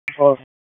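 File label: Raleigh Police Northeast District Tactical; p25; wake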